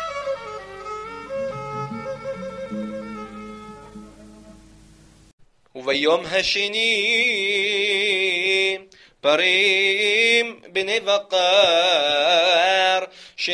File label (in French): paracha de souccot tunisien; 2e jour